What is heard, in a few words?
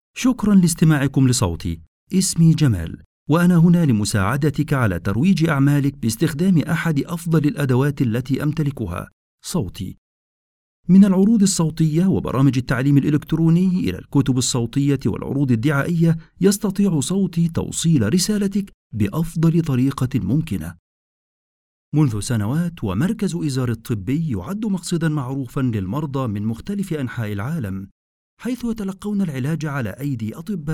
Arabic